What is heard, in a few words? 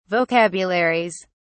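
"vocabularies", British English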